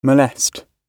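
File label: "molest", British English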